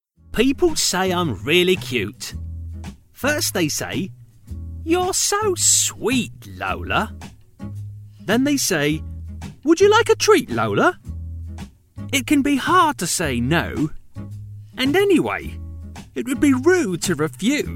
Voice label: childrens story

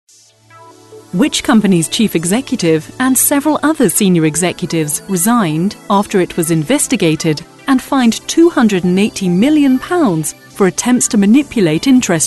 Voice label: elearning

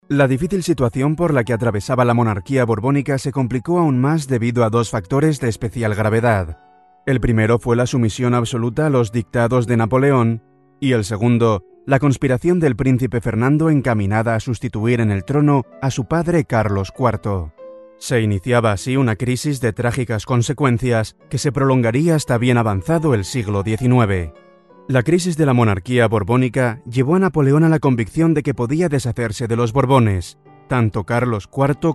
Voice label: narration

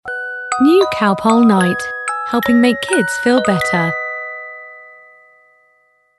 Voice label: friendly

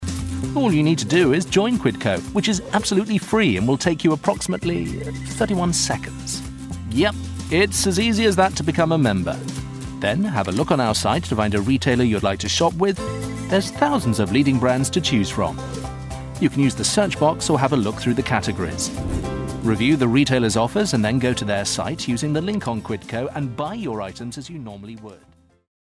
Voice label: friendly

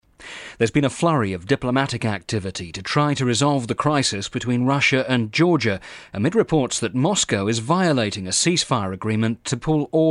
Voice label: news

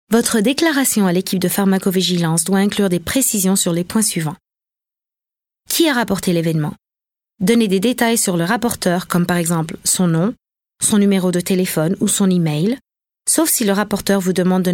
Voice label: french corporate

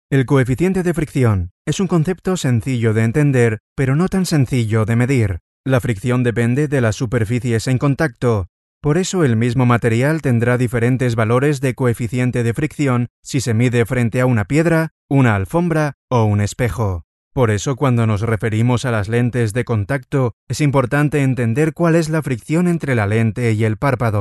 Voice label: hard sell

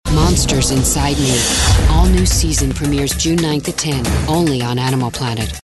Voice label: promo

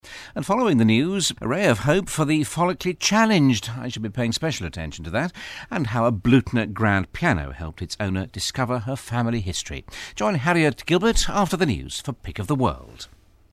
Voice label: continuity

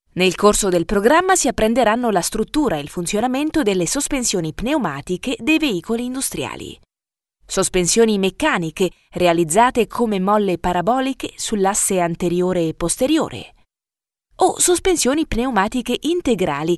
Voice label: e-learning

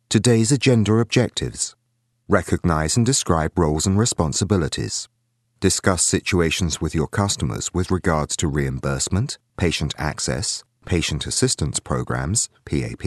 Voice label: elearning

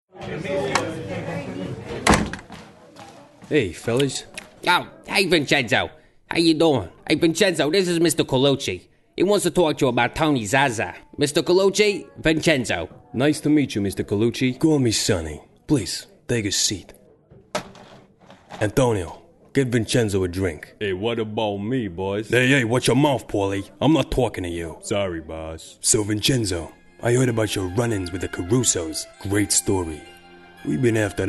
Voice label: italian american

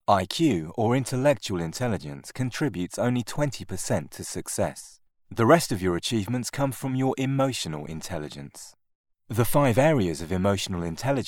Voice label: E-learning

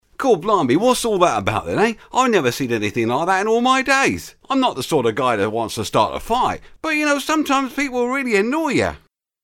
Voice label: cockney

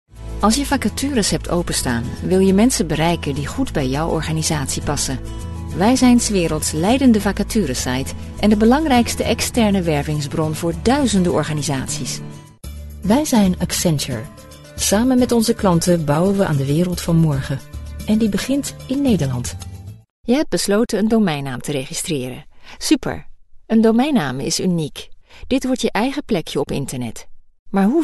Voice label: Narration Dutch

